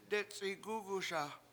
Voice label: "detc7igUguSa" in stomach, Washo

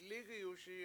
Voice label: lIIgiyuSi7 I always see it, Washo

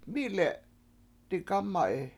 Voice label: "mI7le7 digAma7i", washo; I Ate Everything

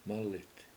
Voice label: "mAlit", washo; trap

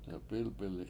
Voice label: "delp7Ilp7ili7", Washo; Blue

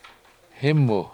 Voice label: "hImu", Washo; Willow

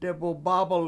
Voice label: "demubAAb1l", Washo

